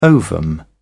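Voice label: "ovum", British English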